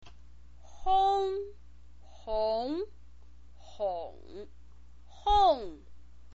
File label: "hong", chinese pinyin